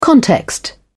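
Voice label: "context", British English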